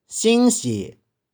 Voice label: "shing1shie1", 玉環

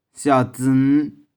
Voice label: "shiau2tsy1n5", 玉環

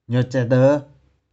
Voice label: "nioh4cih4deu5", 玉環

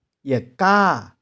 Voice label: "ih4ka6", 玉環

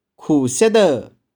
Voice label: "khu2shih4deu1", 玉環

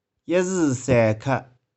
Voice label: "ih4zy1sae1kheh4", 玉環

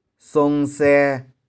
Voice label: "sung1sae1", 玉環

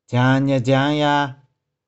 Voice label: "jiang1nih4jiang1ya3", 玉環

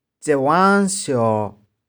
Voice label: "cih4wang1shiau1", 玉環

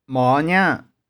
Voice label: "mau1niang1", 玉環

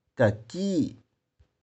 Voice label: "keh4ki6", 玉環

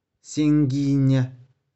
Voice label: "shing1gi1nih4", 玉環

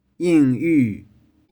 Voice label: "ing2iu2", 玉環

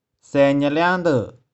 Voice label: "sae1nih4liang2deu1", 玉環

